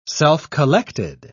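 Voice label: "self-collected", British English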